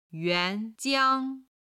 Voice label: "yuan2jiang1", chinese